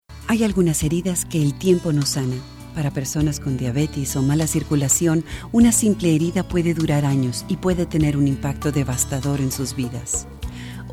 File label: Spanish, females